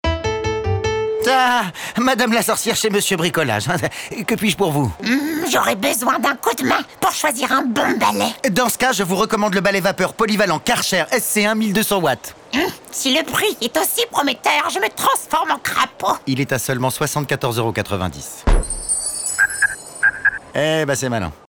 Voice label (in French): comédie sorcière; Mr Bricolage